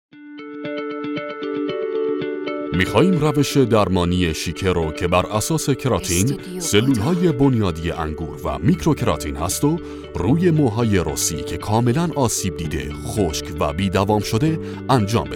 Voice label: commercial